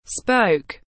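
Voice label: "Spoke", English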